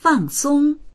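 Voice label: "fang4song1", Mandarin Chinese; dictionary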